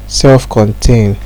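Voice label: "self contain", Nigerian Pidgin, male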